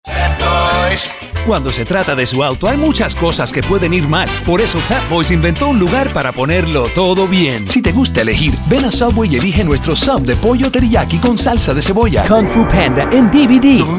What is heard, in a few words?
spanish